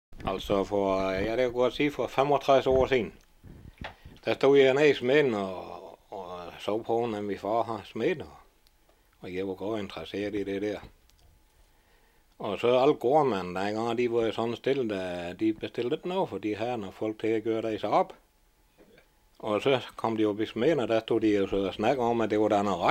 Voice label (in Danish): Dialekt fra Vestfyn; Dansk Lydhistorie Statsbiblioteket; Dialekter; S rslev VFy